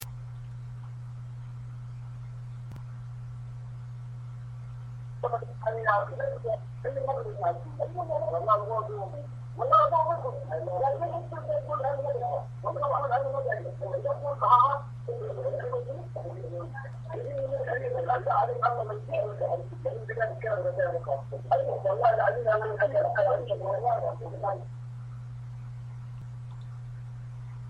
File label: unknown voice mail from Sudan